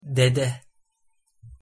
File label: "dede", Turkish